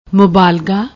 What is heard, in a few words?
"moobaalga", Punjabi